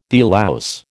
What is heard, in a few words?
"delouse", American